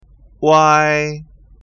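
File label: "uai", pinyin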